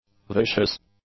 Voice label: "vicious", English; adjectives